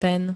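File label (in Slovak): "ten", slovenské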